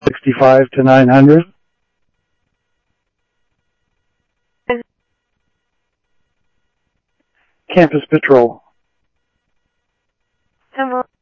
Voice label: Security, scanner audio